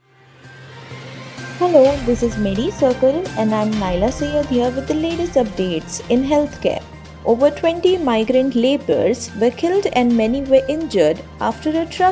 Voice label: english news